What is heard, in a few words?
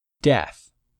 "DEATH", English; 3000 words